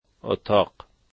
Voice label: "room", dari